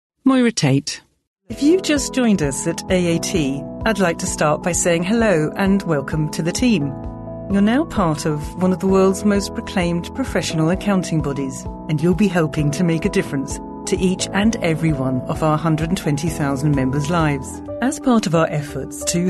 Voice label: english british corporate videos